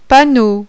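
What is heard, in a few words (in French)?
"PANNEAU", French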